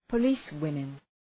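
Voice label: "policewomen", English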